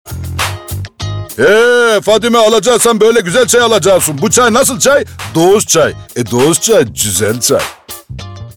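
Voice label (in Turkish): reklam, Turkish; dogus cay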